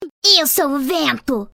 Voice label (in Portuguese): voice portugues brasile; kennen